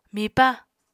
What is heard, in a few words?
"mipa", Apatani